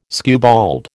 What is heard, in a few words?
"skewbald", American